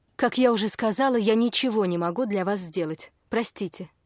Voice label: policewoman